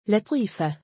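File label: female name "la6iifah", Arabic; لَطِيفَة; laṭīfa(h); ラティーファ; Latifah、Latifa、Lateefah、Lateefa、Latiifah、Latiifa、Latefah、Latefa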